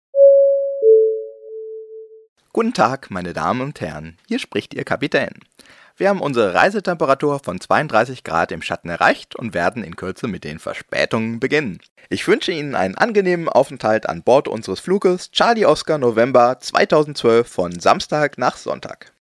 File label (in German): durchsage